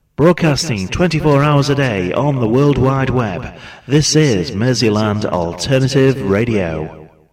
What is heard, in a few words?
Shoutcast, Voiceovers; MAR